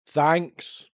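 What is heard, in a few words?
"Thanks", Scots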